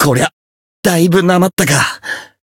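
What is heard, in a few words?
win barely, Japanese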